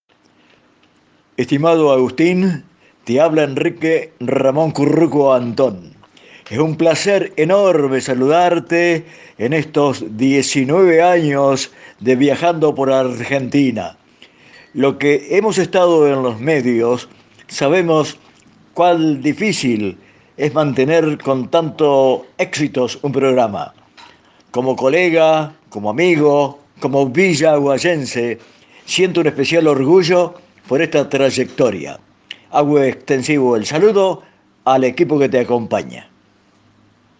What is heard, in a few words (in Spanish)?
Locutor, Radio, Entre Rios; LT27 Radio la Voz del Montiel de Villaguay